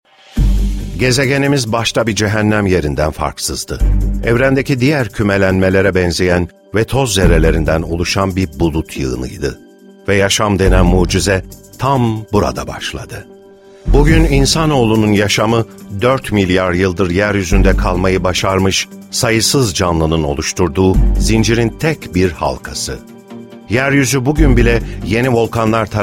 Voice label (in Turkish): belgesel